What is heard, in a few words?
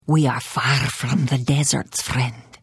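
Hello, Khajiit female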